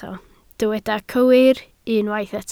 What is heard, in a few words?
"cywir", Welsh